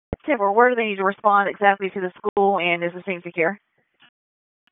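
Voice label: Sheriff's Office Dispatch 1, p25; WSO DISP 1; wake p25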